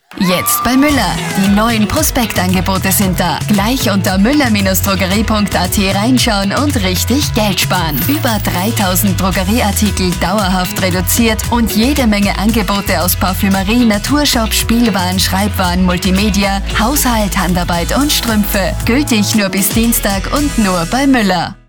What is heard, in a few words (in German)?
Radiospot